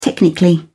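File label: "technically", British English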